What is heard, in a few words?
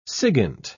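"sigint", British English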